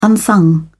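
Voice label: "unsung", British English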